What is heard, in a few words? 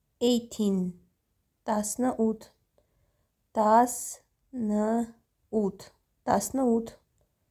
18, armenian; tasnut